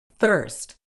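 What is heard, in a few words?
"thirst", British English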